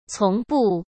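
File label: "从不", Mandarin Chinese; chinese-flashcards; hsk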